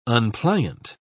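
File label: "unpliant", British English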